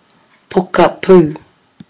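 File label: "pokapu", Māori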